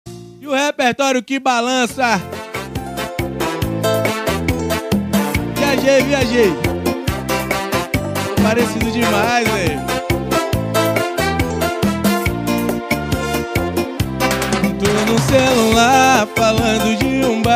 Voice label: locutor

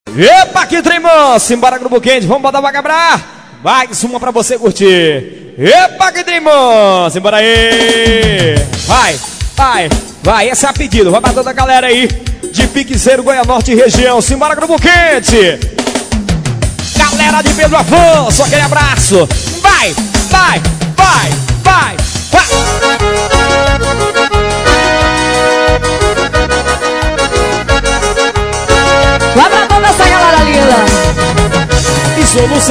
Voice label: locutor ao vivo; goianorte to

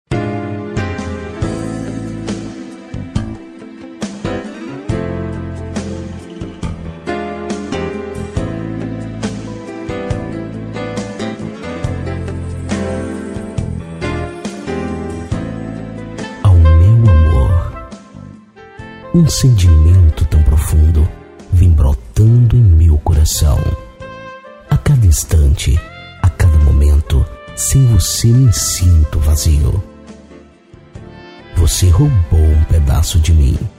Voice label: locutor mensagem

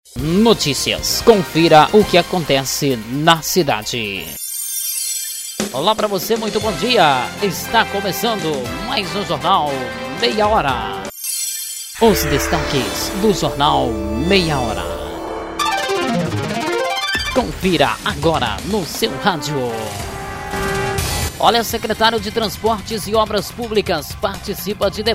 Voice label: vinheta abertura; jornal meia hora

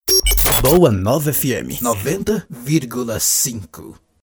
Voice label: vinhetas para web radio; boa nova fm

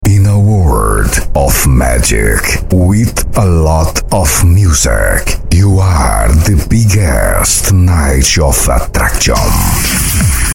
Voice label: locução impacto inglês; banco de vozes

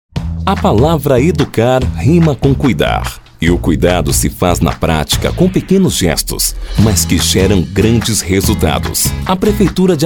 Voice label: voz locutor

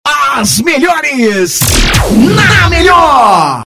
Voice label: vinheta, Vinhetas para DJ e Radio

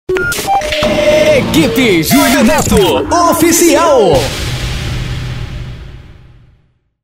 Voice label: vinheta

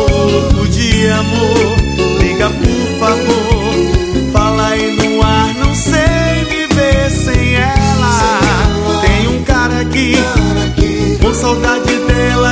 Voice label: locutor arrocha

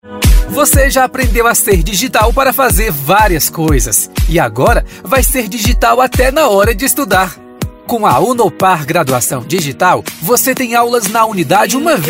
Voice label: locucaoparavideo voz

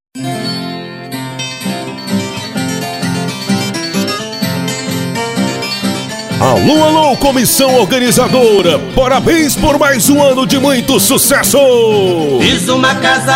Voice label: locutor

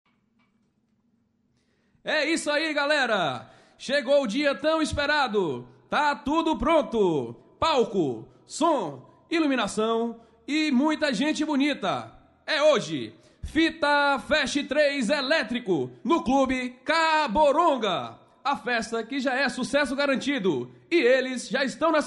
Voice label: locucao

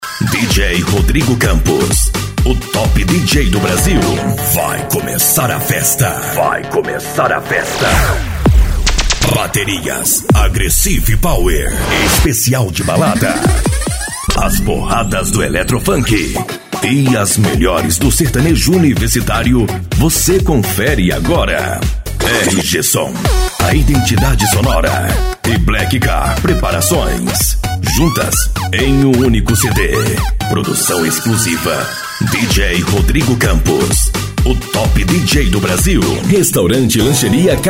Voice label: locutor

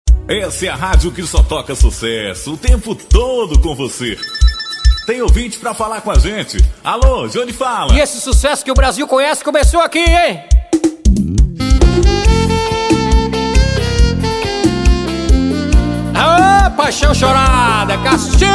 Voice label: locutor

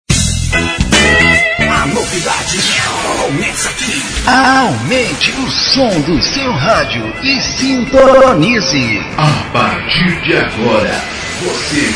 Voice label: entrada voz de autoridade vinheta portug

